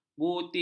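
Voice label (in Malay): "buti", Malay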